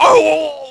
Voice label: fall, negative; Splashdown; XBOX